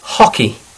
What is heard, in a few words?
"hockey", English